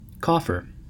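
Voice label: "coffer", US English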